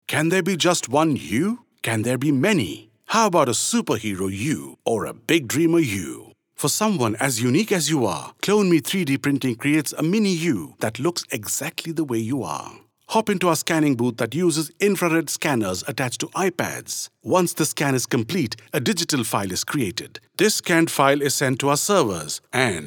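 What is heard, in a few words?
Hindi, English accent; GoLocalise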